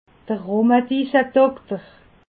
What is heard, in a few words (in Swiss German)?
"rhumadissedokter", alsace